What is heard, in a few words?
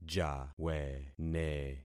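"jawene", concatenated syllables; Affixing experiment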